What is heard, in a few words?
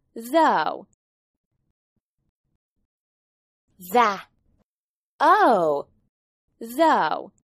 "though", English